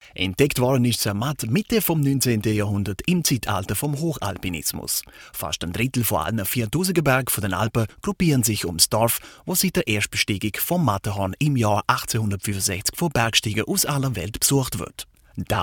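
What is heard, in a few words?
Swiss German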